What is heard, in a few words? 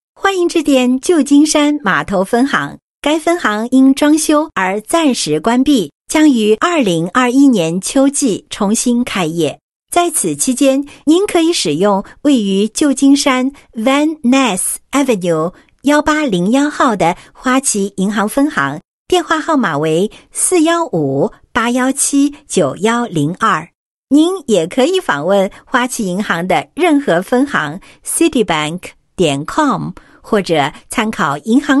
Mandarin IVR